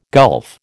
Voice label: "gulf", American